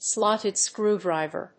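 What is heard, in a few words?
"slotted screwdriver", English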